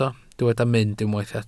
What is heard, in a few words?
"mynd", Welsh